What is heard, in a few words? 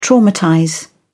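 "traumatize", British English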